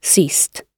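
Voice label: "ceased", British English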